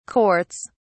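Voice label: "courts", British English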